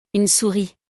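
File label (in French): "Une souris", French; vocabulaire; le lexique de l'informatique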